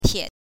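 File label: "tie", Mandarin Chinese, neutral tone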